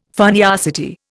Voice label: "funniosity", Indian

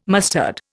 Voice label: "mustard", Indian

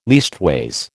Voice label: "leastways", American

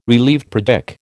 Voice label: "relieved", American

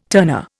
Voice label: "turner", Indian

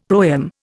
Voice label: "proem", Indian; Dictionary

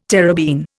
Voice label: "terebene", Indian

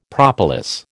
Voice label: "propolis", American

